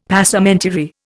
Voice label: "Passementerie", Indian; Dictionary